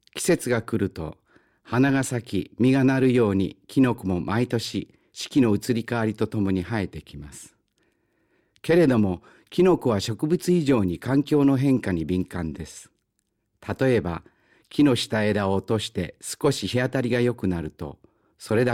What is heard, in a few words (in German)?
japanisch